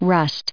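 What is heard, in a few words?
"rust", American English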